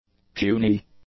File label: "puny", english; adjectives